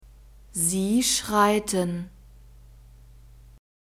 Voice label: "sie schreiten", German; verbs present tense irregular